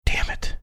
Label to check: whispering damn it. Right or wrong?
Right